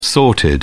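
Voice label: "sorted", British English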